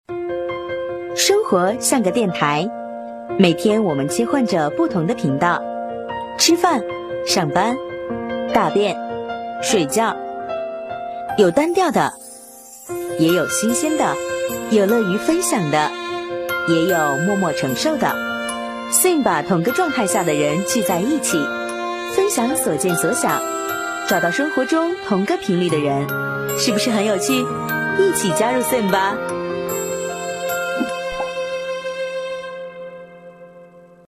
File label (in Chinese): 女 广告 俏皮欢快, 国语女声配音; 年轻专题